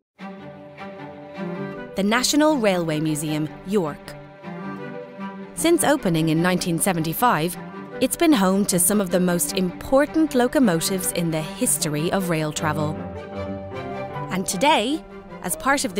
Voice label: Irish Documentary